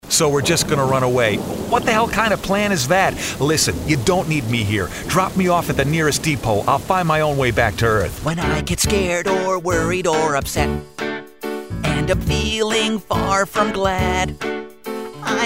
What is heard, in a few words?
char, male voice talent